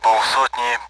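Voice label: Speech Sound Russian Common AWACS Numbers; 50; DCS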